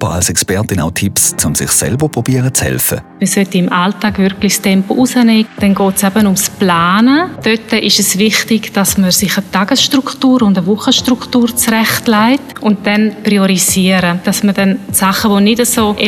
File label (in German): Infomercials Long Covid; Kliniken Valens